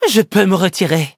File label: victory, fr; Cookie Run